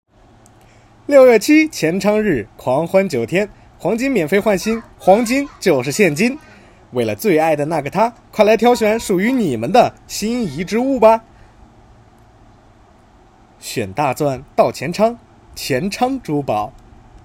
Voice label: 定向新婚钻戒广告青春男声

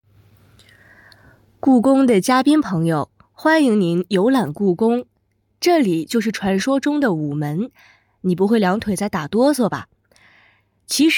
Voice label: 解说词; 故宫

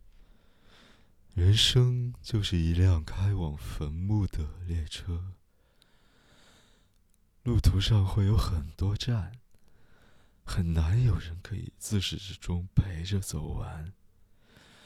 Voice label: 中文抒情独白; 千与千寻

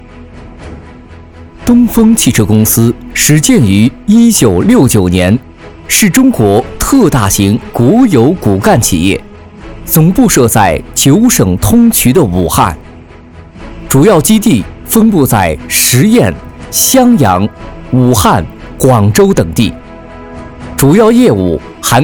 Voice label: 纪录片大气恢宏

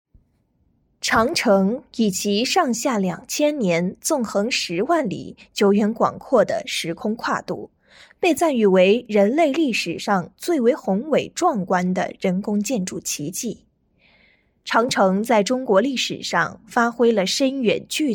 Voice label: 长城解说

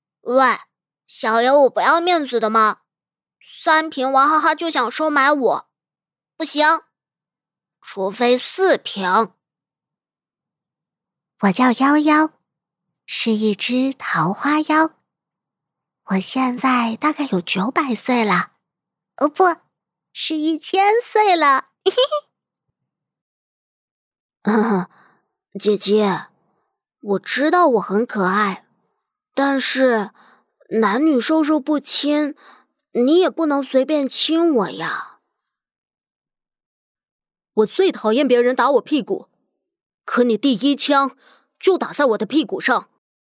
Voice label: 各类 声线 角色 样音, 粤语

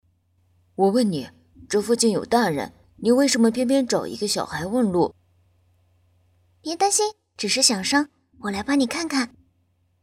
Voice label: 安全教育片角色试音

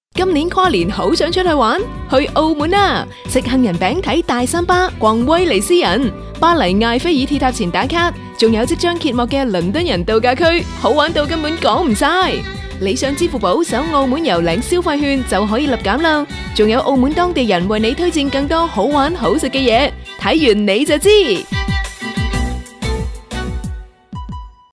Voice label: 粤语商业广告

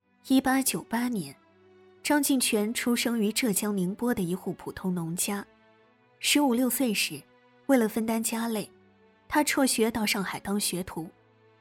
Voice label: 历史讲述感

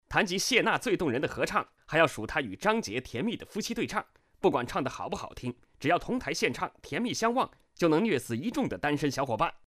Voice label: 活泼轻快

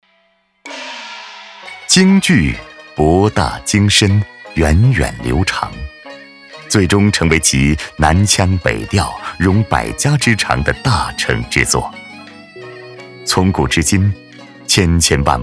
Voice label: 高端 男 纪录片 京剧 磁性 韵味

